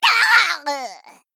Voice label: Female Gnome Death